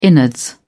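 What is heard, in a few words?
"innards", British English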